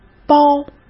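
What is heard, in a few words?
"剥", Mandarin Chinese; chinese flashcards; hsk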